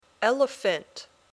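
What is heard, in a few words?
"elephant", English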